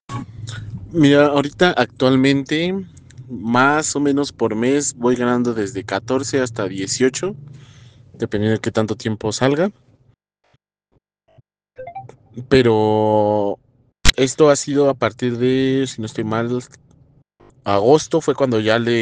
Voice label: whatsapp ptt